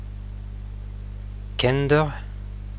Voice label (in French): cousin kenderv, apprenons le breton ensemble